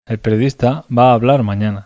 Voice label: acento oracional foco verbo, bizkaia masculino; spansk; fonetica

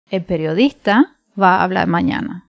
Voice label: acento oracional el periodista cuba, pinar del rio femenina; spansk; fonetica

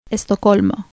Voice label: silaba "estocolmo", cuba, pinar del rio femenina; spansk; fonetica

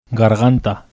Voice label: "garganta", bizkaia masculino; spansk; fonetica

